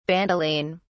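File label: "bandoline", British English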